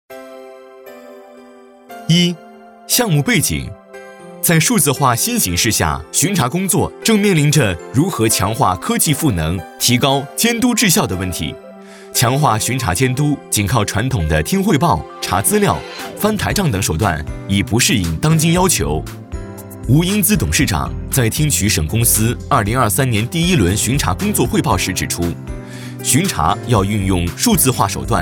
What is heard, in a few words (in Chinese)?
宣传片汇报解说数据化巡查